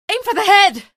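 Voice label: citizen alert zombie, female; source engine; episodic; episode 1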